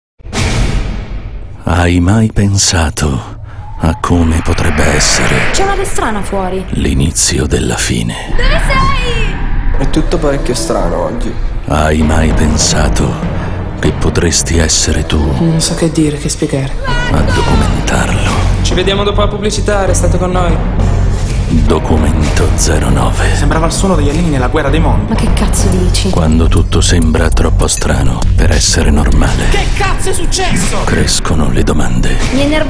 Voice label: commercial